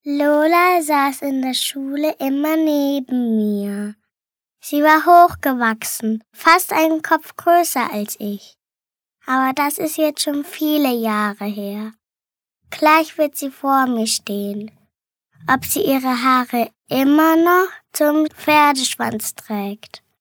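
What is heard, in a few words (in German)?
acting, Kindlich; Schultage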